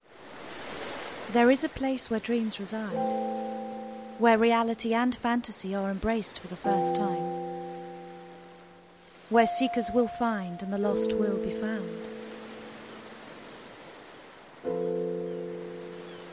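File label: drama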